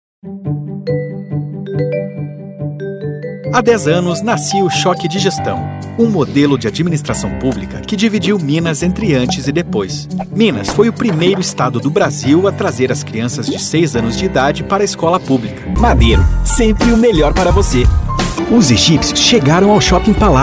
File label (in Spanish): locutor brasileno